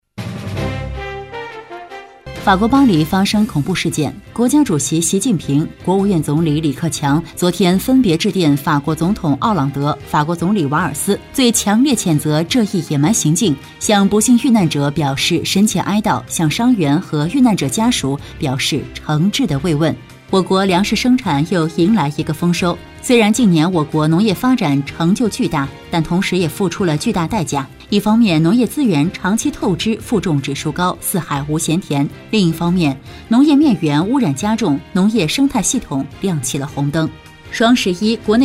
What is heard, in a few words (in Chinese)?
女 新闻播报